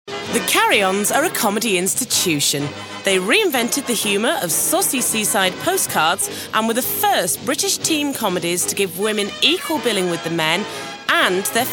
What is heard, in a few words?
broadcast; C4carryon